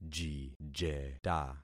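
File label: "jijeta", concatenated syllables; Affixing experiment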